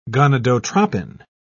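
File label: "gonadotrophin", British English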